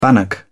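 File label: "bannock", British English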